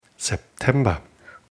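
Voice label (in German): "September", German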